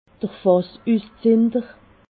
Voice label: "fassuszinder", alsace

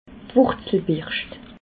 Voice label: "wurzelberscht", alsace

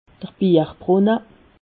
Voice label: "bierbrunne", alsace

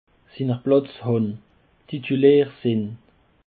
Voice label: "sinerplatzhan", Alsace

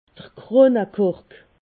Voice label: "kronekork", alsace

